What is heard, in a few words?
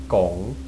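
"gong", Chinese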